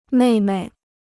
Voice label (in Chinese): "妹妹", Chinese